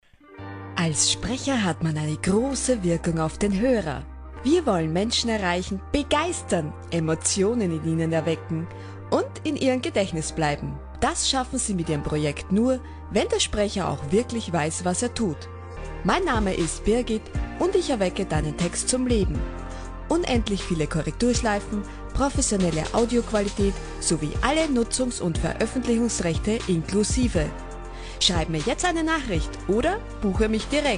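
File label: German, female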